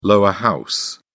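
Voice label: "lower house", British English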